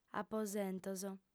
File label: "aposentos", audiofem